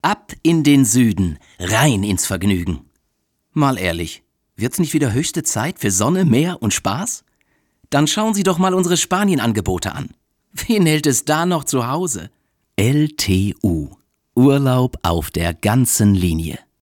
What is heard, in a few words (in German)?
Werbung; LTU